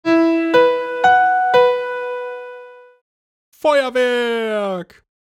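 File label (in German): "Feuerwerk", German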